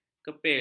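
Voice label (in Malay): "kepil", Malay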